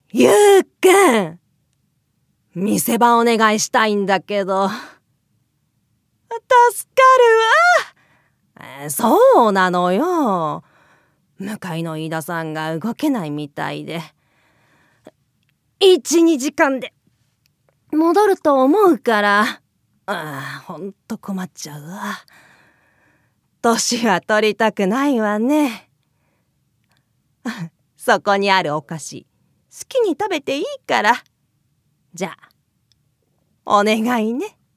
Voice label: 女性2(年輩)

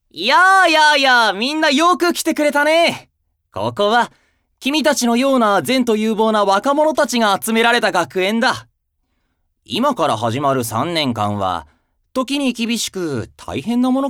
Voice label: ひょうひょうとした魔法使い

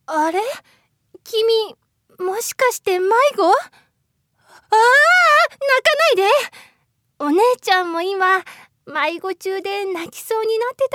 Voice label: 2面性のある女の子

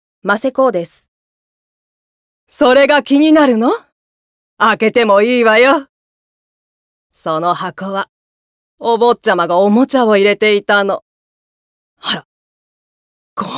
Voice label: 女性1(年輩)

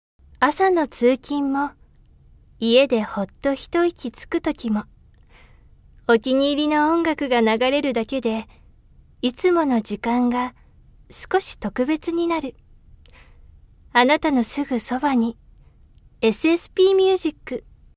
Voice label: CMナレーション(子供向け図鑑)

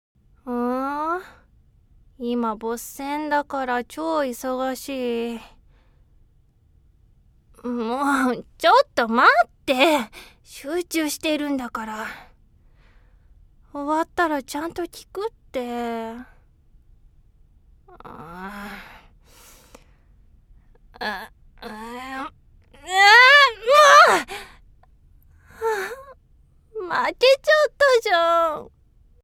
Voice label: 幼い暗殺者

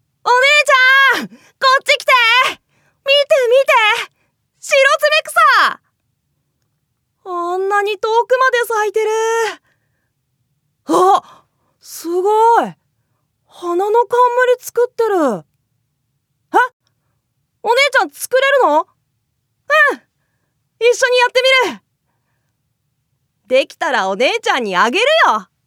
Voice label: 少年3

